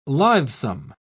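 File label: "lithesome", British English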